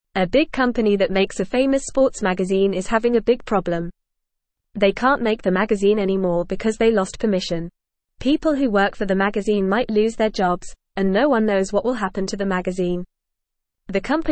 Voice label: Beginner FAST Reading, English; English Newsroom